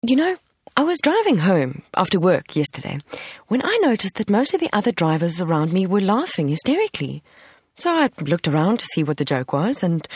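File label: English Conversational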